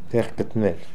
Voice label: "Techgekdnel", pronounciations; Fourth Way; Beelzebub